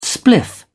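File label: "spliff", British English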